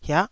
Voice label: "hiah9", Taiwanese syllable